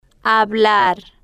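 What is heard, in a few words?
"hablar", Spanish, slow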